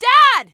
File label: scared; eli lab; hl2